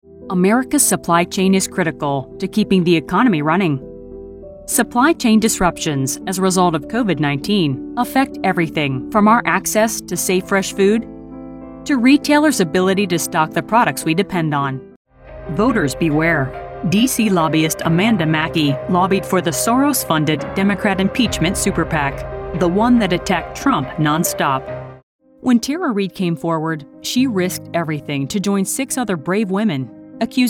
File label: political action ads